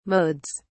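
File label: "modes", British English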